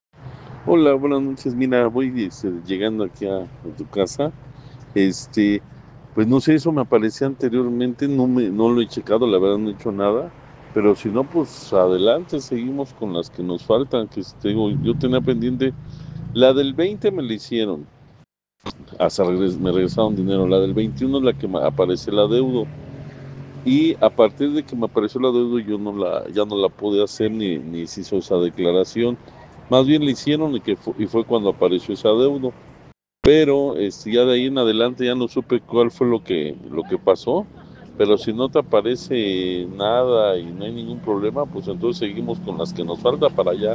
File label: whatsapp ptt